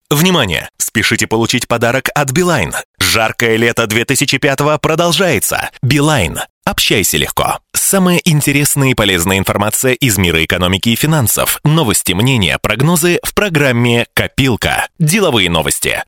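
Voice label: Russian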